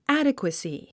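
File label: "adequacy", English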